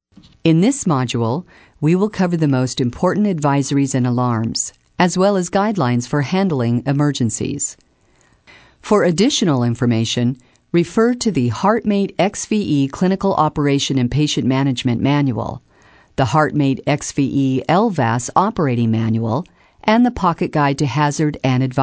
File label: Industrial VO